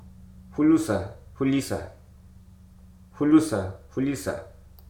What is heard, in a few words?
"island", Hruso